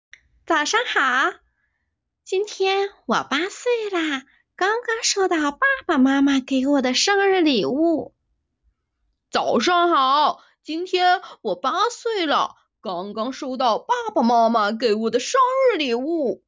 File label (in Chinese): 女童男童